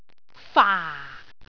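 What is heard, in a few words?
"faa4", Cantonese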